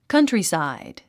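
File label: "countryside", English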